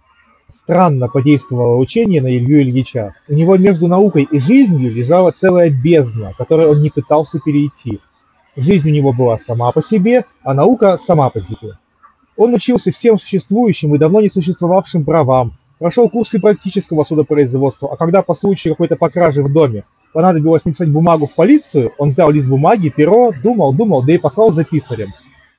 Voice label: Mic noise; Запись с микрофона гарнитуры (в шумной обстановке); ugreen hitune t3 pro